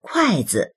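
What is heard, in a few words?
"kuai4zi", Chinese; 筷子; 箸; kuàizi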